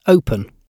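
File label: "open", British English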